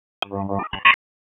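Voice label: Raleigh Police North District Tactical; p25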